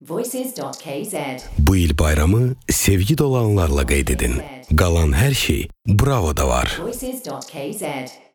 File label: Voice aze